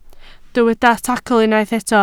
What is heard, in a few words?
"tacl", Welsh